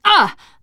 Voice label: shoved, teen girl; player; survivor; voice